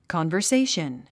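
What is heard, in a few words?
"conversation", English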